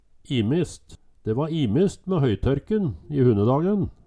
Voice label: imist, Numedalsmål

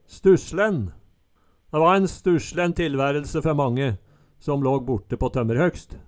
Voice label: stusslen, Numedalsmål

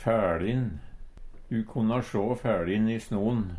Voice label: "fæLin", Numedalsmål; Du konna sjå fæLin i snon.

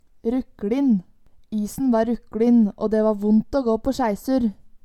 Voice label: "ruklin", Numedalsmål

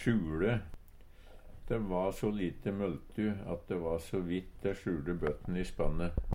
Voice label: "sjuLe", Numedalsmål; Verb; De va so lite møltu at de va so vidt de sjuLte bøtten i spanne.

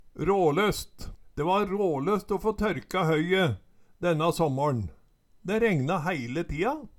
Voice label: ralost, Numedalsmål; De va råløst å få tørka høye denna såmmårn, de rengna hæile tia

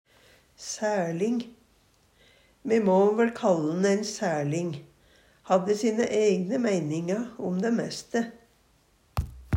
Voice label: saerling, Numedalsmål

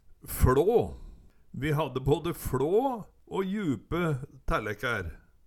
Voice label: fLå, Numedalsmål

